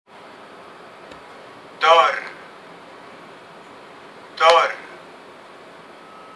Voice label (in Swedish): "Dörr", Swedish